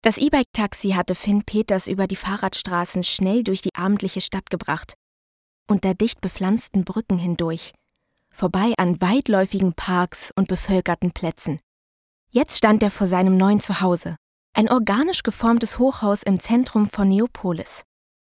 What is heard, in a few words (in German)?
kurzgeschichte, deutsch frauen